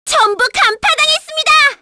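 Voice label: Vox Skill, Korean; Hanus; kingsraid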